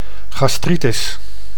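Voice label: "gastritis", Dutch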